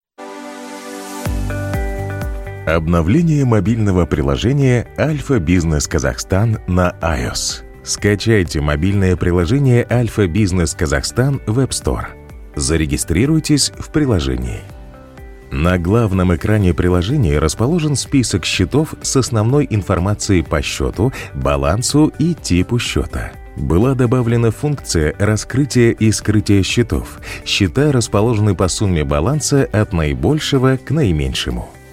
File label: russian corporate videos